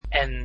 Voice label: "n", Dutch alphabet

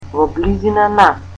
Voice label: "near", Macedonian

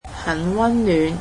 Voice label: "warm", Cantonese; adjectives

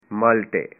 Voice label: oranges, punjabi

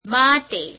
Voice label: gujarati to; Preposition; માટે; [meāṭe]

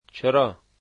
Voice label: "why", Dari

